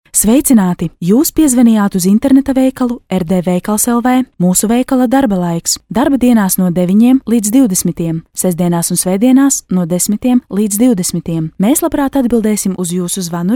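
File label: latvian female; lektoring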